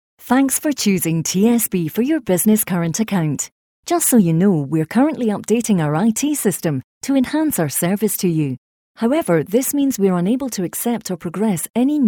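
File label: British Scottish IVR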